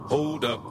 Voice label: english